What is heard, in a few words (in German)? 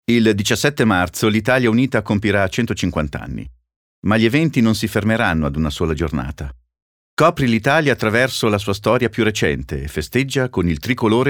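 Italian, Kommentar Neutral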